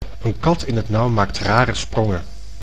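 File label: "een kat in het nauw maakt rare sprongen", Dutch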